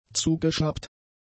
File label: "zugeschnappt", German; adjectives